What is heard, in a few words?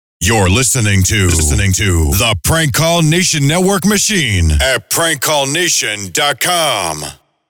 Drop, dry; Phone Losers of America Media Pack 2014; Live Show Sounds; Drops